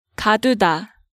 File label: "Gaduda", Korean